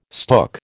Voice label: "stook", American